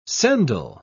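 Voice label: "sendal", English, British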